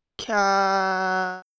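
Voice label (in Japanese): "きゃ", Japanese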